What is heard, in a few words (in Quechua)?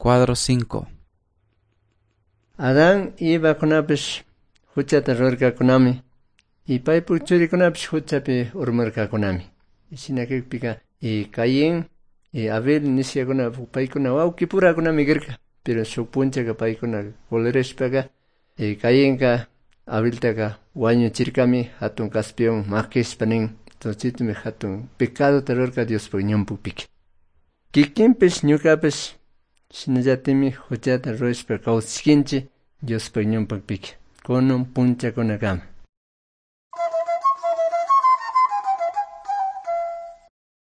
good news quichua cayambe